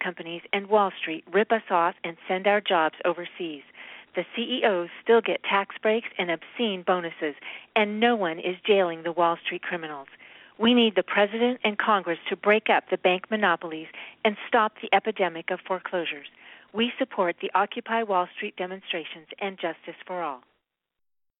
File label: voice message